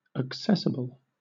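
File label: "accessible", British English; pronunciation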